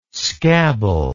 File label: "scabble", English